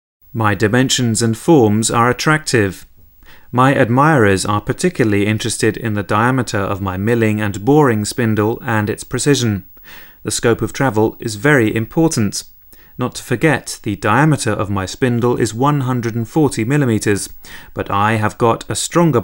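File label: male English documentary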